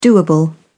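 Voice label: "doable", British English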